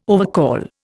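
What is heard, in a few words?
"overcall", Indian English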